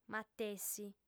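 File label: "matessi", female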